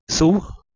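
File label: "suH", Proto-Indo-European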